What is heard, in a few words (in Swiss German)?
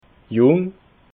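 "jung", Alsatian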